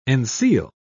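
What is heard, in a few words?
"enseal", British English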